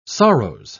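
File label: "sorrows", British English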